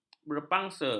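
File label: "berpangsa", Malay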